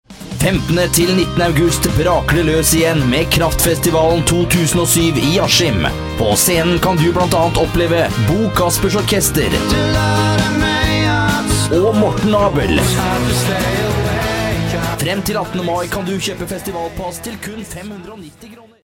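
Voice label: Norwegian commercial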